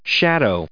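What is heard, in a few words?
"shadow", US English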